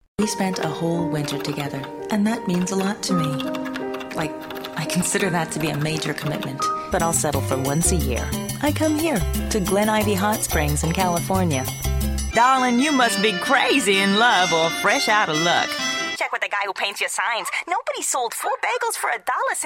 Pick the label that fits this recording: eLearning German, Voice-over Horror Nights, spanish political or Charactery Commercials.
Charactery Commercials